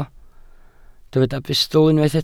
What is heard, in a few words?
"bustl", welsh